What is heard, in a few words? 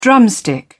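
"drumstick", British English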